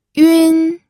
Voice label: "yun1", pinyin